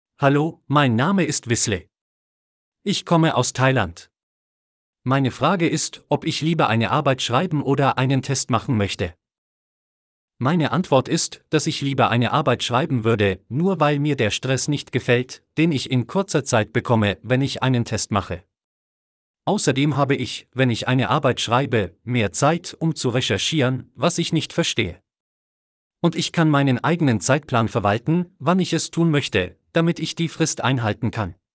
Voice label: Audiobook Voice